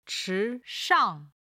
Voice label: "chi2 shang4", Chinese